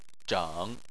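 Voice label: "zheng" Chinese, third tone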